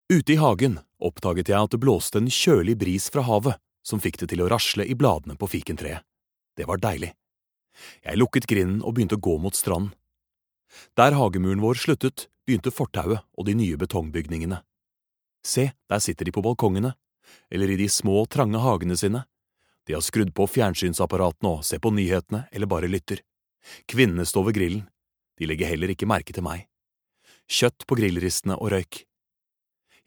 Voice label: audio book, no